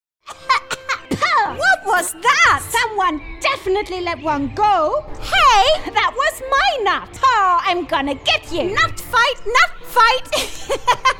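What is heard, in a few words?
English character; GoLocalise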